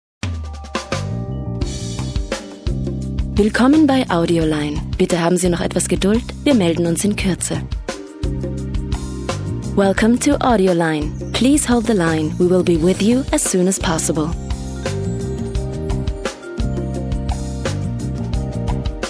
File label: Voice Demo